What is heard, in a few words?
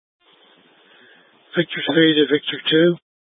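Incident Tactical, scanner audio recording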